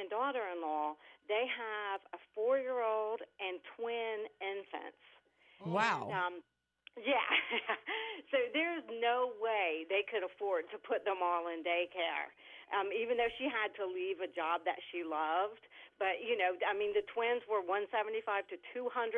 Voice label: Child Care Prices Phone Call